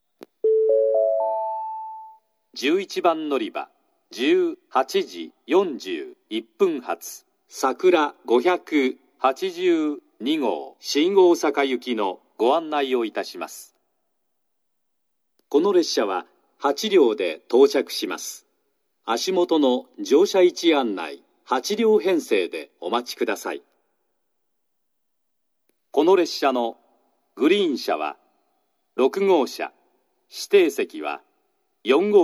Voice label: 11番のりば案内放送（さくら582号 新大阪行き）※三原停車, 久留米（新幹線ホーム）

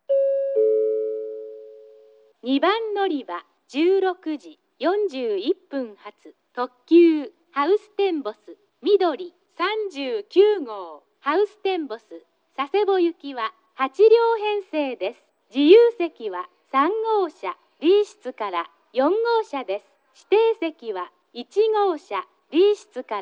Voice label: 2番のりば案内放送; ハウステンボス・みどり39号 ハウステンボス・佐世保行き; takeo onsen; ハウステンボスが臨時運転の列車で、定期列車と放送内容が異なり

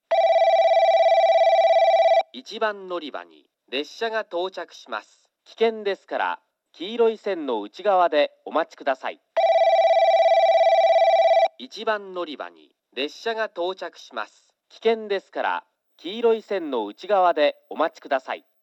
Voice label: 1番のりば接近放送 男声; 常に簡易放送; 遅延放送は流れていた

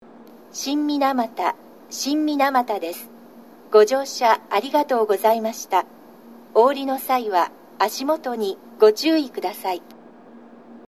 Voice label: 到着放送 女声; shin minamata

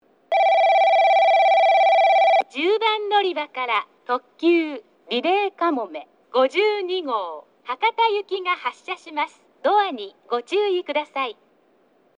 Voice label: 10番のりば発車放送; リレーかもめ52号 博多行き

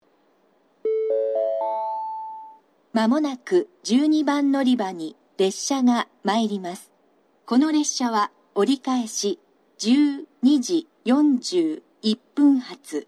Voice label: 長崎 西九州新幹線ホーム 自動放送, 12番のりば接近予告放送; かもめ28号 博多行き）※停車駅が鳥栖まで

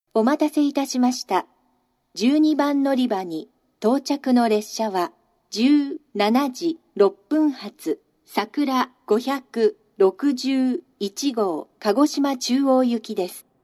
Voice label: 12番のりば接近放送, 新幹線ホーム; 筑後船小屋; 12番のりば接近放送（さくら561号 鹿児島中央行き）

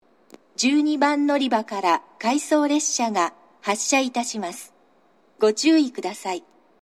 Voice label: 12番のりば発車放送（回送列車）; shin omura

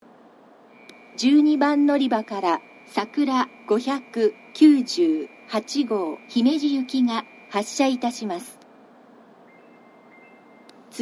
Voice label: 12番のりば発車放送, 新幹線ホーム; 新鳥栖; さくら598号 姫路行き

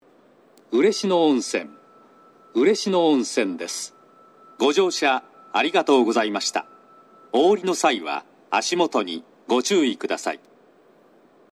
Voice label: 嬉野温泉, 到着放送 男声; 武雄温泉

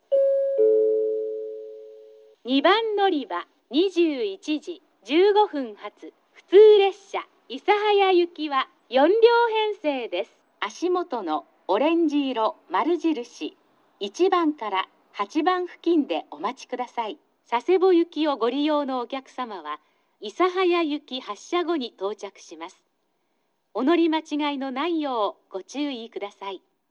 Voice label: 2番のりば案内放送; ハウステンボス; 2番のりば案内放送（普通 諫早行き）; ※乗車口 オレンジ色○印1〜8

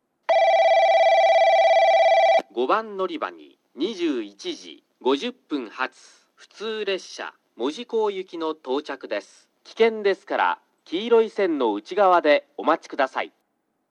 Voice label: 5番のりば接近放送（普通 門司港行き）

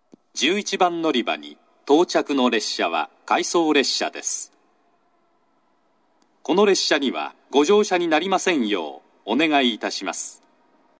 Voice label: 11番のりば接近放送（回送列車）; shin omura

